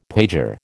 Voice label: "pager", American